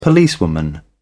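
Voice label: "policewoman", British English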